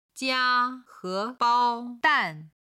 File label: "jia1h2bao1dan4", chinese